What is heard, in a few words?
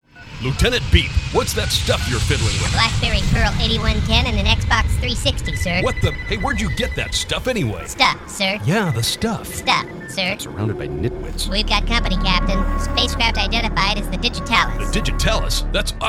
Character Cartoon